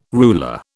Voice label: "ruler", British